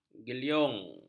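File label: "geliung", Malay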